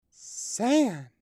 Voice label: "sand", English